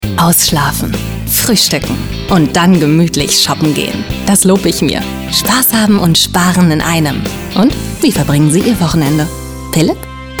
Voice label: Werbung; Moebel Pilipp

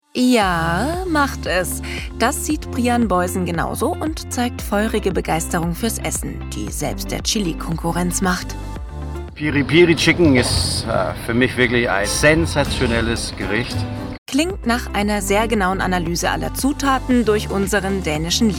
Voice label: Off Stimme TV Kochen; LOFT VOICES

